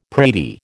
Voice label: "pratie", American